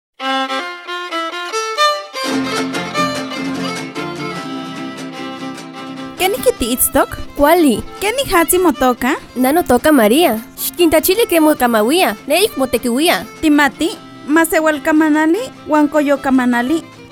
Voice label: Lengua Nahuatl Huasteca; Chicontepec; Proteccion, preservacion de las lenguas indigenas